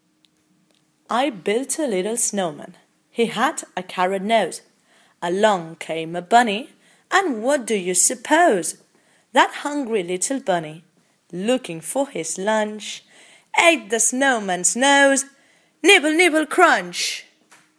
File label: Poem